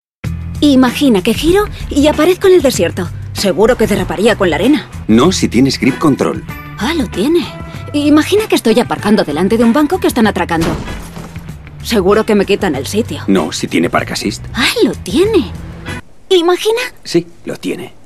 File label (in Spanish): hombre castellano, locutor; peugeot